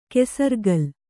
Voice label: "ಕೆಸರಕಲ್ಲು", kannada

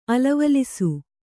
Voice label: "ಅಲವಲಿಸು", Kannada

